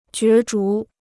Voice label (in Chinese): "角逐", Chinese